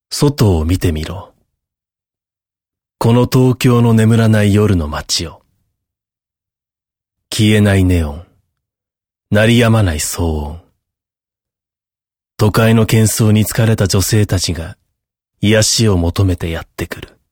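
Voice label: narration male natural; m cool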